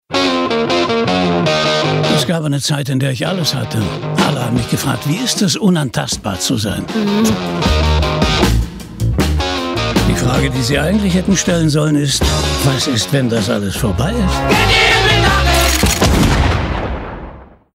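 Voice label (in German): deutsche Synchronstimme; Stimme von Robert de Niro, Harvey Keitel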